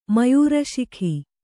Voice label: "ಮಯೂರಸಿಖೆ", Kannada

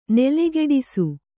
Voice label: "ನೆಲಗಟ್ಟಿಸು", kannada

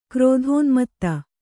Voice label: "ಕ್ರೋಧೋನ್ಮತ್ತ", Kannada

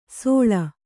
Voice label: "ಸೋಳ", Kannada

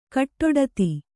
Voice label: "ಗಡ್ಡಾದ", Kannada

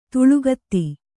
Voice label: "ತಲೆಗುತ್ತ", kannada

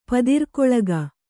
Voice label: "ಪದಿರ್ಕೊಳಗ", kannada

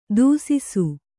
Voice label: "ದೂಸಿಸು", kannada

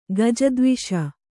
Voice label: "ಗಜದ್ವಿಷ", Kannada

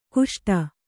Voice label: "ಕುಷ್ಟೆ", kannada

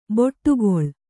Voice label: "ಬಿಡುಗೊಳ್", Kannada

